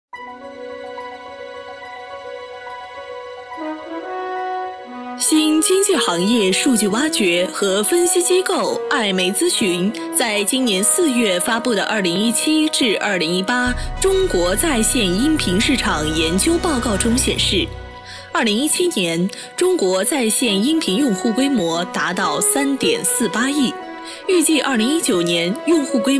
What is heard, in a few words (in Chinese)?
颁奖晚会; 喜马拉雅2018汽车沙龙